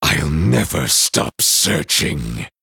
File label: Male Night Elf TRIGGER SPECTRAL SIGHT